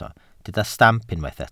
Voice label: "stamp", welsh

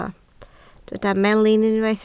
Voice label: "melin", Welsh

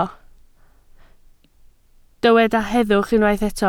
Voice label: "heddwch", Welsh

